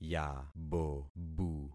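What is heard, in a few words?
"yabobu", concatenated syllables; Affixing experiment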